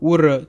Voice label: yes, serrano